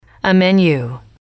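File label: "menu", female, english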